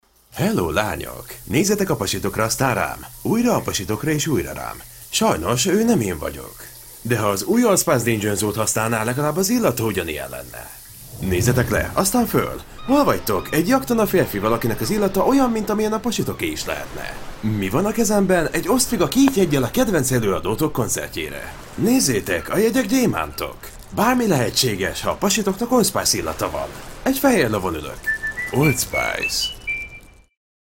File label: Hungarian male